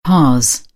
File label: "parse", British; English